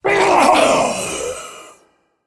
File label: Male Dragon Death